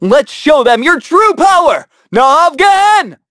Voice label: Vox Skill, en; kingsraid